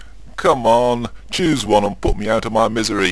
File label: chat